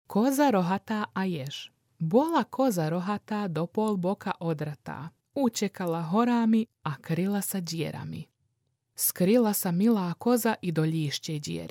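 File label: Slovak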